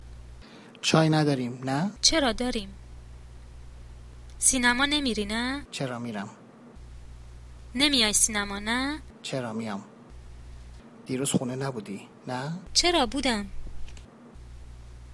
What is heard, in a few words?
pronunciation, Persian